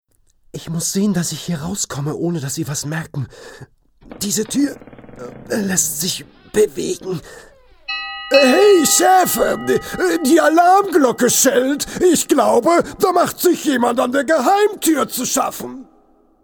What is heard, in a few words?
male german actor; ideasonora